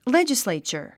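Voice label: "legislature", English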